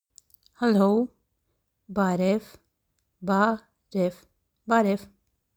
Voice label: Hello Arm Barev informal conversational – to greet a friend, Armenian talking phrasebook